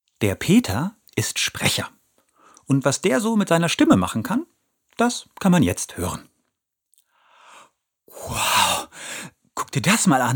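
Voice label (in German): Hörspiel Medley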